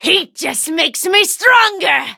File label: touch, en; cookie run